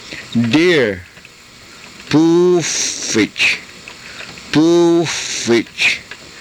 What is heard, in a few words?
"puufich", Karuk